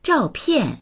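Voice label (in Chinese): "zhao4pian4", Mandarin Chinese; 照片; 写真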